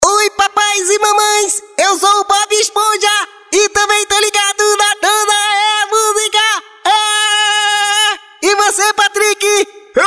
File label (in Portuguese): vinheta; BOB ESPONJA E PATRIK CARICATO